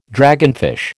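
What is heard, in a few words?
"dragonfish", American English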